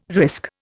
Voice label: "risk", Indian

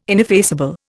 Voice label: "ineffaceable", Indian

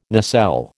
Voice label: "nacelle", American

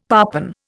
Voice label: "parpen", Indian; Dictionary

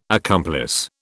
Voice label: "accomplice", British

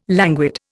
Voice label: "languid", Indian

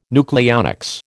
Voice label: "nucleonics", American

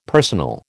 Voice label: "personal", American

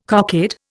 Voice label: "cockade", Indian

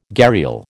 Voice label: "gharial", American

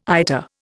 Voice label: "IATA", Indian

